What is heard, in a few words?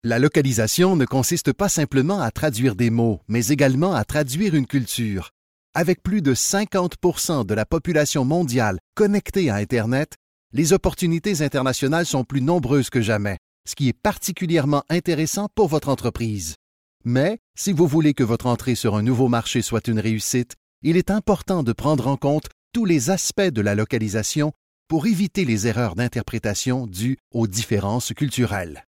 french canada male